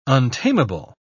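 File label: "untamable", British English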